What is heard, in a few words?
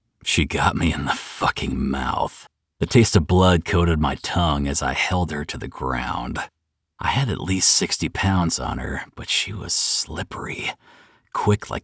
Fiction Dark Romance Stalker, Audiobook narrator